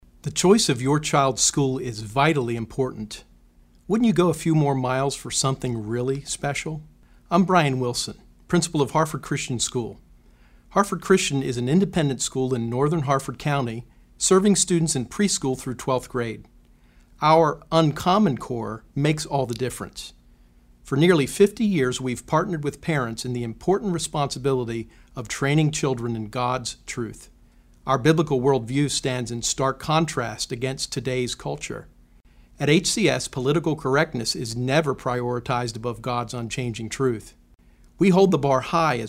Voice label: Spring Open House Commercial